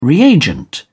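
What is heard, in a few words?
"reagent", British English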